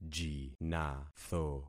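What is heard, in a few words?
"jinatho", concatenated syllables; Affixing experiment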